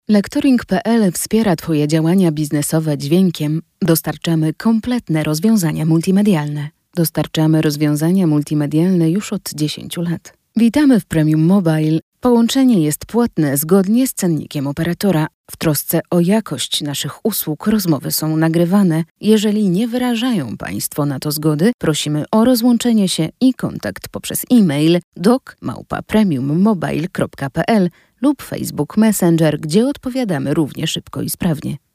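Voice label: Polish female; lektoring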